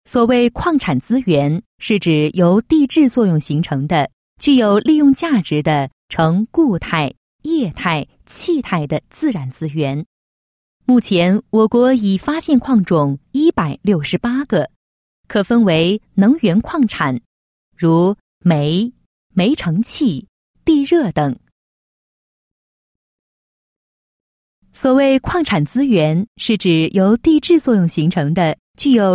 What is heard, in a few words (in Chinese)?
女 课件 多风格, 国语女声配音